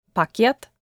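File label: "package bag", Russian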